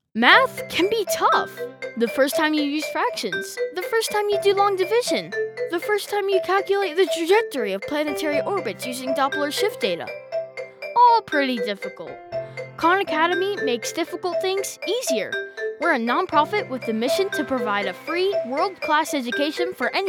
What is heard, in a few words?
Commercial